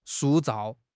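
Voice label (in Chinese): "塑造", Chinese; HSK6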